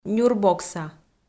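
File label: "нюрбокса", Komi, female; kpv audio dict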